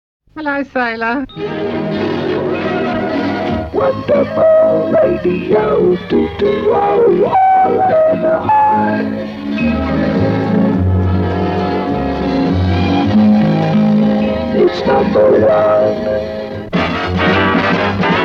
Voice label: jingles; Scruffy RNI; Offshore Pirates

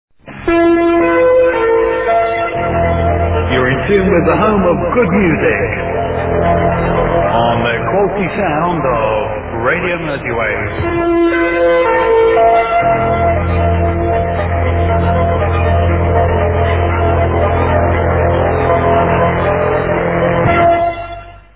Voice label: Jingle; Merseywaves Jingles; RMW

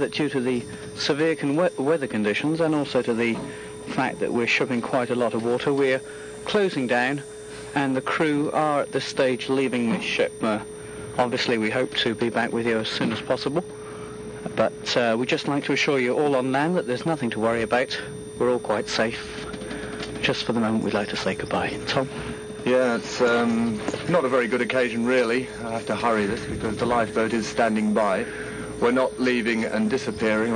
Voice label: Radio Caroline Closedown; 1980

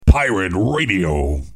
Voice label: pirate radio, Jingles; MAR

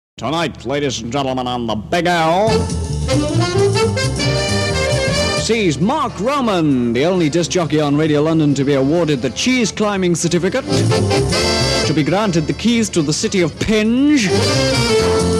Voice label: promo; Big L Marc Roman promo (by Kenny Everett); Offshore Pirates

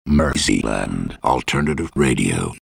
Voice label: DRY VO; MERSEYLAND ALTERNATIVE RADIO

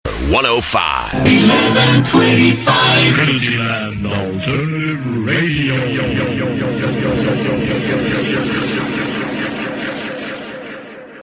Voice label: Merseyland Alternative Radio, Dry Voiceovers; MAR 1386 AM & 105 FM

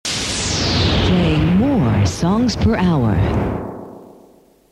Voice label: USA female; Various Jingles

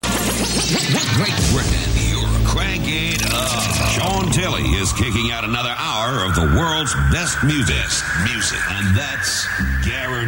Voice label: Radio Luxemburg Jingle; Radio Luxembourg

